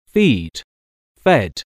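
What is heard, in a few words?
"feed", English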